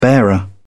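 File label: "bearer", English, British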